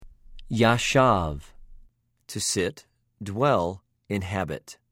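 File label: "יָשַׁב", Hebrew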